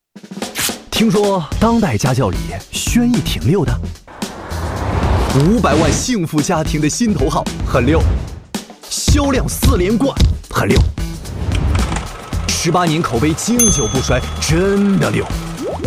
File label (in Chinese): 男 大音 青春个性; 东风日产轩逸